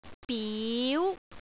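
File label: "biu2", Cantonese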